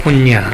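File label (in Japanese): "konnya", 南山形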